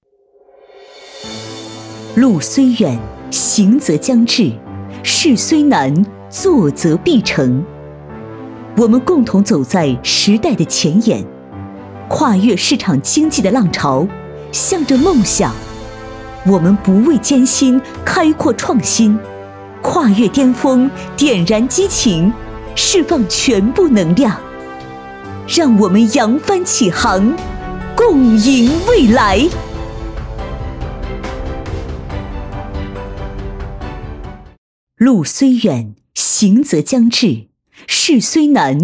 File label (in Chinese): 企业宣传 有力度大气